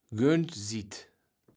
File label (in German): "Göntsid", Platt